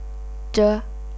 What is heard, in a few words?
"zhe0", chinese pinyin